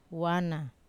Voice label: "wana", there, wamesa